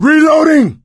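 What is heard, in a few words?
reloading, male; Left 4 Dead 2; coach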